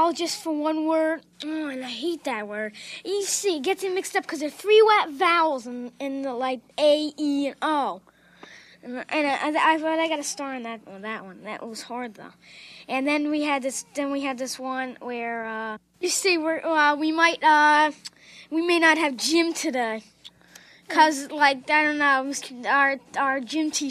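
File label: Kids Discuss Spelling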